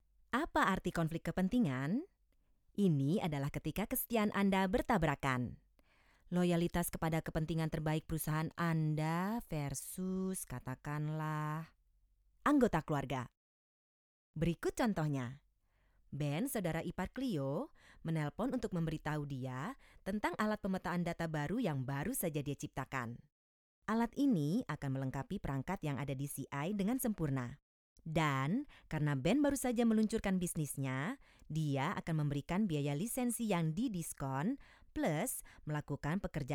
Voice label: Indonesian Narrator for Training Video